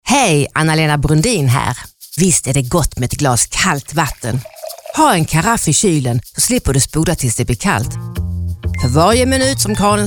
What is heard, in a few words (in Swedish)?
Reklam; Sydvatten Profil; karaff